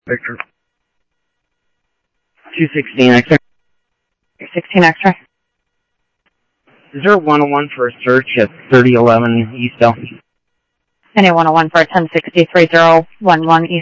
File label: Phoenix PD, scanner audio